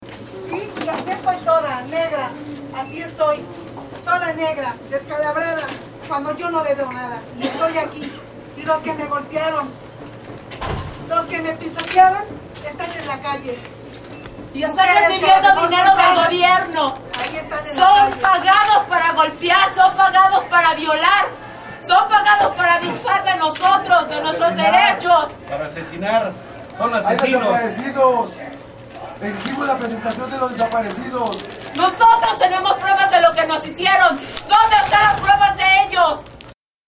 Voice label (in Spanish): mujeres; presas del penal de santiaguito; madreadas violadas